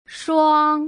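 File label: "shuang", Putonghua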